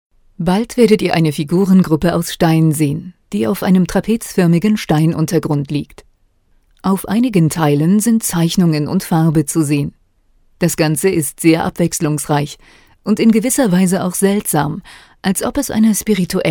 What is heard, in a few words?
locutora alemana german voiceover